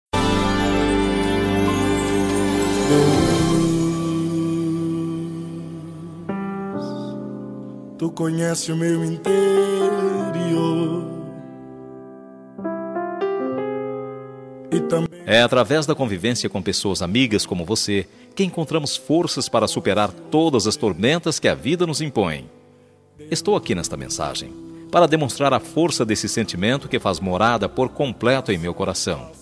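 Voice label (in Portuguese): birthday EVANGELICO male, telemensagem evangelica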